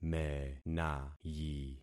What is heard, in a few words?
"menayi", concat syllables; Affixing experiment